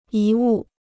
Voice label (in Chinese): "遗物", Chinese